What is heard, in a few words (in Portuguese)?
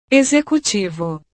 "executivo", portugues